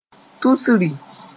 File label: "tusri", moore